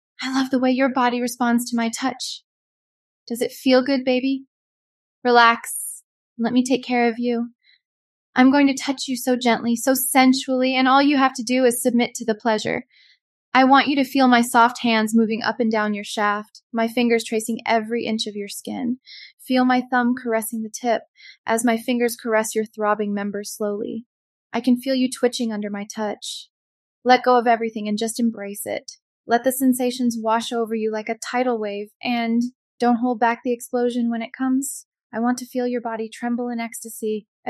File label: synthesized audio